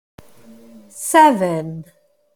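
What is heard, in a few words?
"Seven", English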